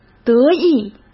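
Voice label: "得意", Mandarin Chinese; chinese-flashcards; hsk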